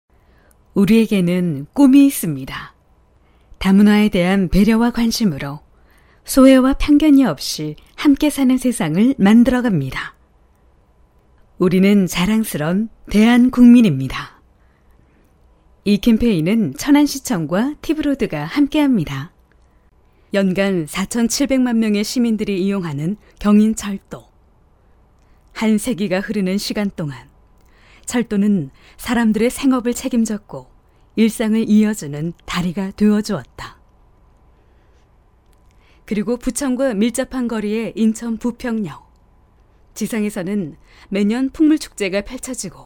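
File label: Korean, female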